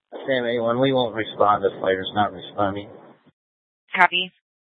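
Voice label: Mesa Police Department Superstition Dispatch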